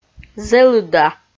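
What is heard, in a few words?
"зэлыда", Komi, female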